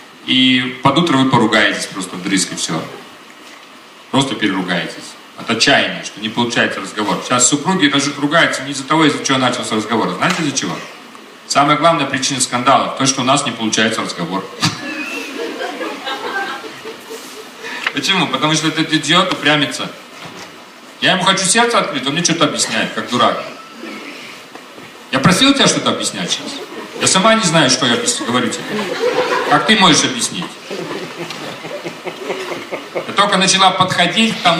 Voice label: woman talk